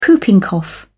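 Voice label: "whooping cough", British English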